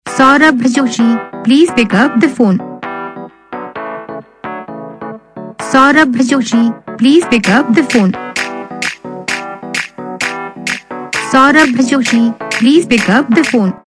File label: please pickup the phone saying, Ringtones